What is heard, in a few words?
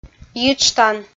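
"йиджтан", Komi, female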